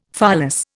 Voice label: "pholas", Indian; Dictionary